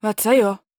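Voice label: question short, voice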